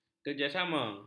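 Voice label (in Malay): "kerjasama", Malay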